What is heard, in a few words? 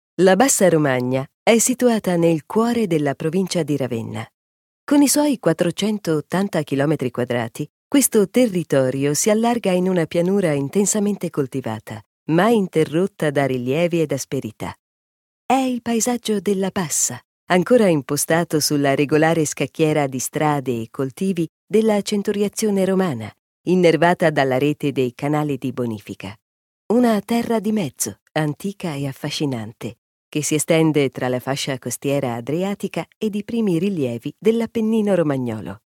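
Italian documentary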